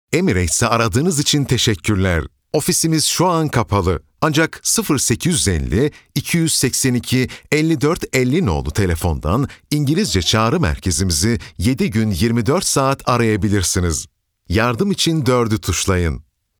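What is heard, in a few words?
turkish interactive voice response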